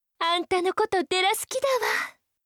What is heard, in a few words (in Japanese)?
好きです 愛知; 貴音